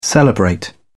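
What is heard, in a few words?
"celebrate", British English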